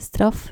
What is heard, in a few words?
"straf", Dutch